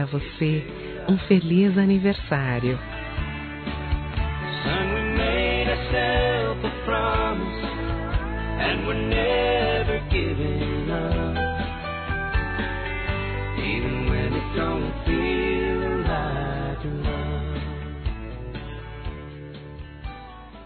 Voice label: FILHA, Telemensagens, Aniversários, Fem; Aniv Familiar

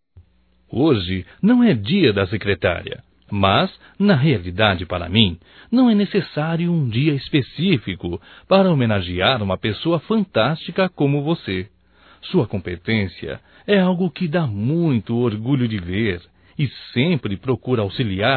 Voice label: HOMENAGEM A SECRETARIA, Só Voz, masc; Telemensagens; HOMENAGENS

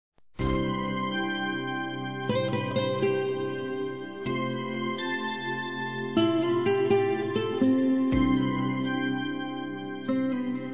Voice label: Trilha CONVITE A SALVAÇÃO F, Telemensagens Gospel fem; Telemensagens 2017

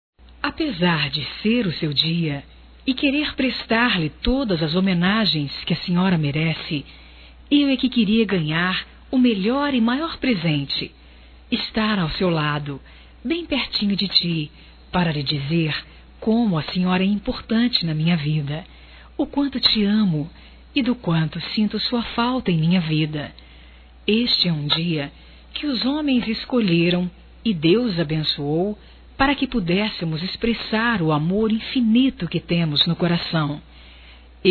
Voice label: mãe distante, telemensagens, Dia das Mães; Telemensagens 2017

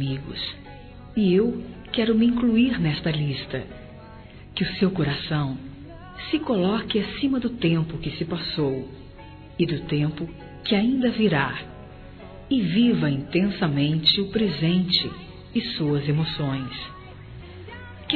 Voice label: Aniversário Atrasado Feminino; Telemensagens 2017; Aniversários; Aniversário atrasado e antecipado

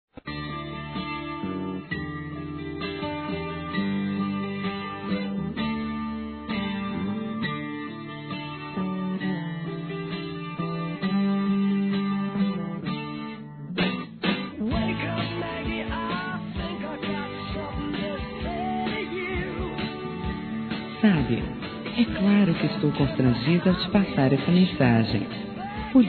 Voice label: PAQUERA F; Telemensagens; Conquistas Cantadas paqueras; fem; Telemensagens 2017

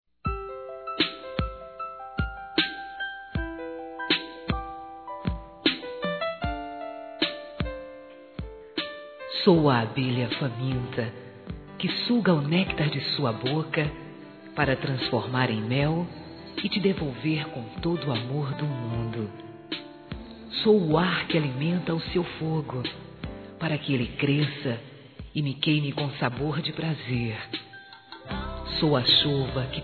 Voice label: Picante Feminino; Telemensagens; Sensuais

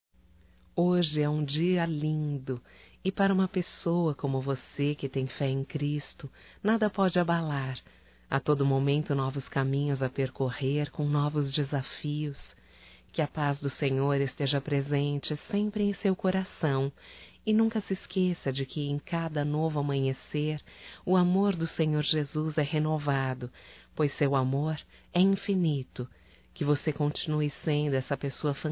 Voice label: EVAN PODER DA Fé, Telemensagens, Evangelico, fem; Telemensagens 2017

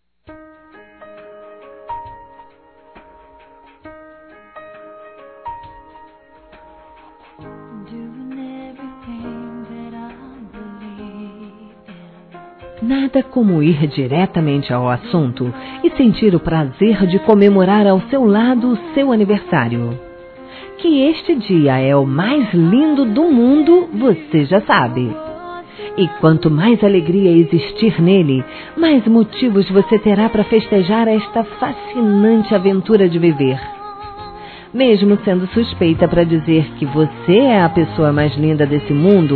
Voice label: Namorado F; Aniversários; ANIVERSARIO romantico; fem

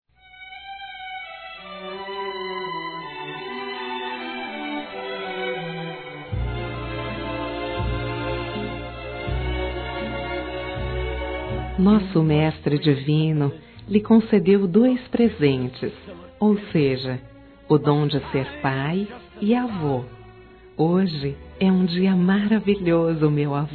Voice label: AVÔ F, Telemensagens Dia dos Pais; Telemensagens 2017

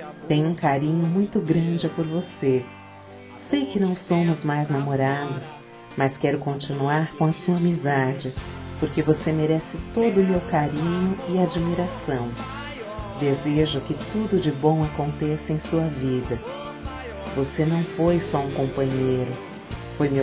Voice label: Aniver de Ex Feminino; Aniversários; Aniversários diversos

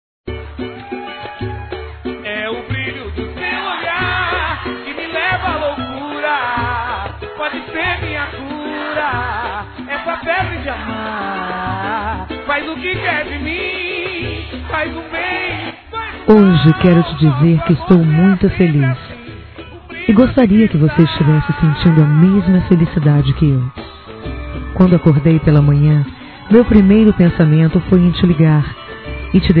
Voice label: DIA OS NAMORADOS F, fem; Telemensagens 2017

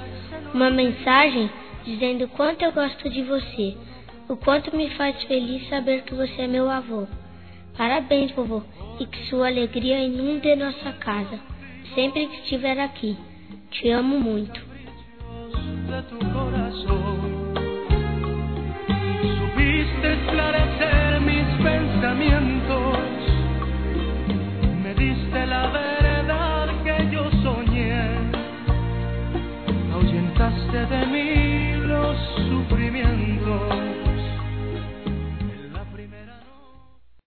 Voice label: Aniversário Avô Infantil Masculino; Telemensagens 2017; Aniversários

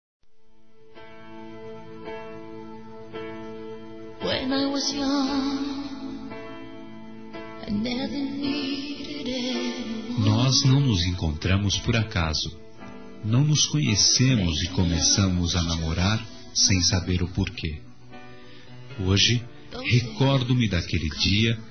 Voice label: ANIV. DE NAMORO M; Aniversários; ANIVERSARIO romantico; masc

